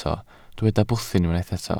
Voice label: "bwthyn", Welsh